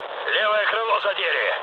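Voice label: Russian left wing mood low, speech; War Thunder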